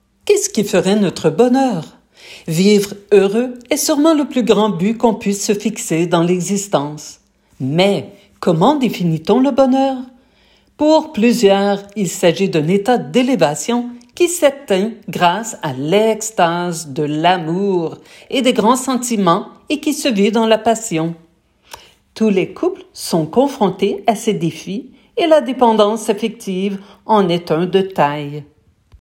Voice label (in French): VOIX NARRATION